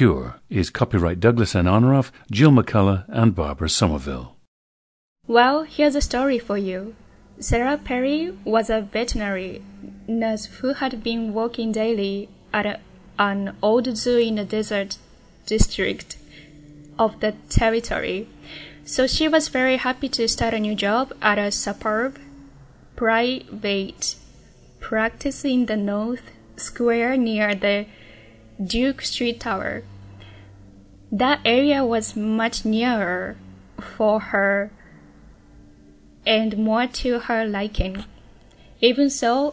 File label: japan